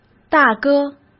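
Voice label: "大哥", Mandarin Chinese; hsk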